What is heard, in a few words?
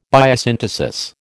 "biosynthesis", American